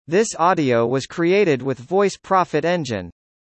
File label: English US